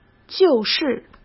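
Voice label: "就是", Mandarin Chinese; hsk; chinese-flashcards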